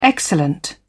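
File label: "excellent", British English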